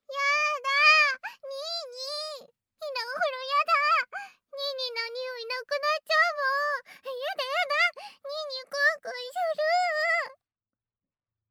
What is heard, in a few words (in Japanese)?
効果音なし, ASMR; ぞりぞり耳舐め; しゅきしゅき大しゅき -無条件に君を愛してくれるロリ声わんこ娘